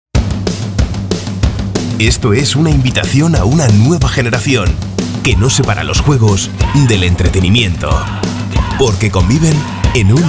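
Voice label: male Castilian Spanish voice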